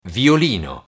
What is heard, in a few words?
"violino", Italian